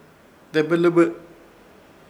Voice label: "Debɨlɨbɨ", Ishir Chamacoco; una ceremonia

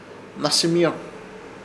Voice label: "Hnasɨmioyor", Ishir Chamacoco; serpiente

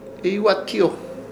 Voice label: "Ihyewatiyo", Ishir Chamacoco; comfundido

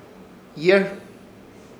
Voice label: "Ihyẽrz", Ishir Chamacoco; aliento

